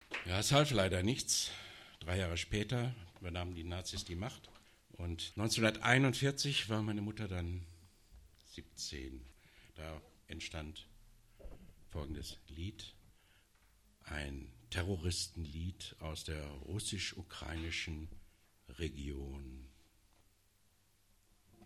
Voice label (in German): ansage; abgesang